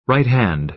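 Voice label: "right-hand", American English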